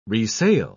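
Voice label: "resail", British English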